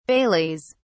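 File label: "baileys", British English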